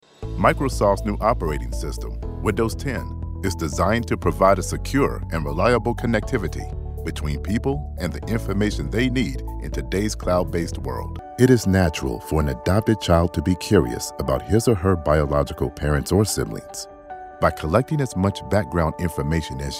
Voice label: E Learning